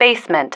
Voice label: "basement", female